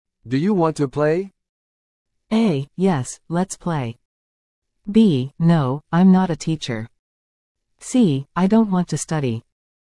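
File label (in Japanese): ノーマル, リスニング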